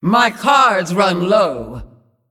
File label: Female Demon Low Cards